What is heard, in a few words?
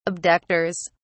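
"abductors", British English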